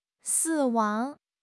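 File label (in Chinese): "死亡", Chinese; HSK6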